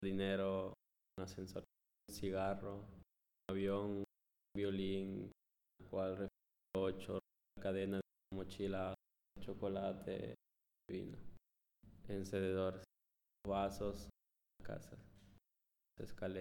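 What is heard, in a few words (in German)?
Zusammengefugte Worter Schnell Spanisch, male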